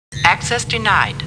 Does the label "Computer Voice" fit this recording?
Yes